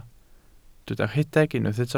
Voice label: "rhedeg", Welsh